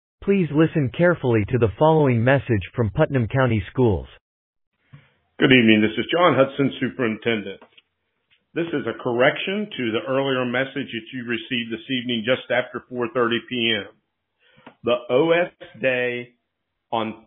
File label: Voicemail